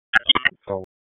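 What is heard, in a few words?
Raleigh Police Southeast District Dispatch, p25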